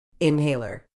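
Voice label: "inhaler", British English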